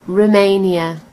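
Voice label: "romania", British English; gcompris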